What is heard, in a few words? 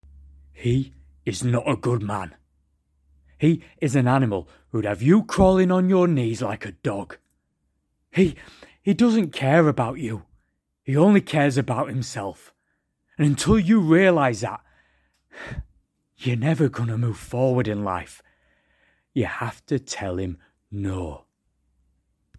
Northern